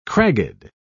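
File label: "cragged", British English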